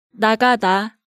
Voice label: "Nagada", Hangeul sounds